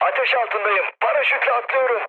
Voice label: on fire, mood med, speech tur; War Thunder